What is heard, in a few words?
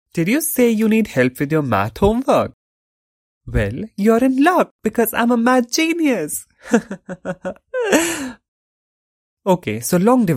Dubbing Game Series